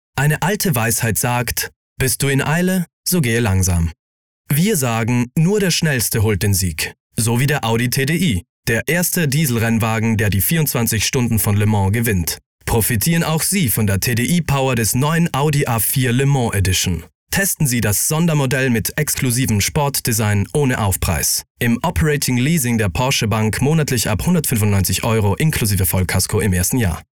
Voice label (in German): Werbung; Audi